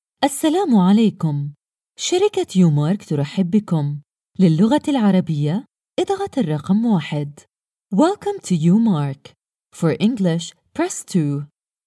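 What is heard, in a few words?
Arabic, female, IVR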